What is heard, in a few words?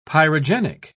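"pyrogenic", British English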